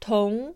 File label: "同", Chinese